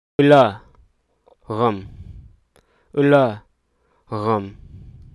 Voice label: "down", hruso

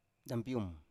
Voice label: "tampium", Biak

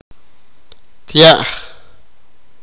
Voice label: "carne", sipakapense

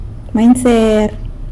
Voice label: "manyser" bee; san lucas quiavini

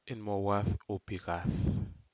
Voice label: Aneityum large leaf

